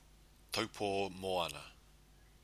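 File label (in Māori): "taupo moana", Māori word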